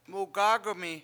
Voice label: "mugAg1mi", Washo; he asked

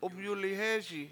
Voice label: "umyUli7hEESi", Washo; are you dead

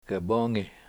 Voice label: call him back, Washo; gaboongi

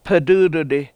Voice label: "ped!!d1di", Washo; He Is Shivering

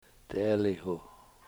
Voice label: "t eeliwhu", Washo; man

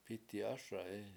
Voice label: "p7Iti7 ASa7i", washo; will fall

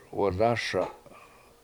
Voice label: "wadASa7", Washo

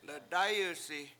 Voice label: "ledAyusi", washo; it missed me

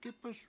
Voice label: "up", Washo

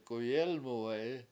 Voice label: k7uyElmuwe7i swim under, Washo

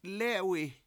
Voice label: "lEE7wi", washo; I$m eating it

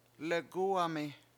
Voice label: legUUwamhi carry pay, Washo

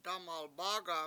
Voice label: "dAmalbAAga7", Washo

